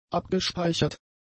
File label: "abgespeichert", German; adjectives